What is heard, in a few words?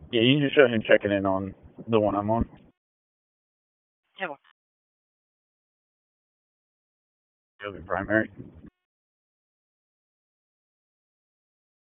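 Raleigh Police Southeast District Dispatch, p25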